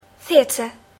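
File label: "theater", English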